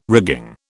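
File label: "rigging", British English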